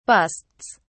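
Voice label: "busts", English, British English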